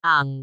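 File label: "aang2", Cantonese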